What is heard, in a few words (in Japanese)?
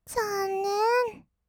「ざーんねーん」, kawaii voice; 1200 kawaii voice!!; 色々なワンショット